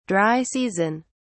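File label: "dry season", British English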